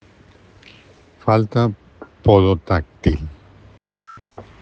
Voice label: Voice message